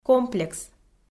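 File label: "комплекс" Komi, female; audio dict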